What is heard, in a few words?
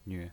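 "nve" neutral tone, pinyin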